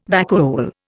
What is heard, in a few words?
"vacuole", Indian